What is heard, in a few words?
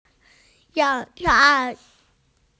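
"balcony", Mandarin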